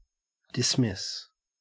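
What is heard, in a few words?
"dismiss", Australian English; pronunciations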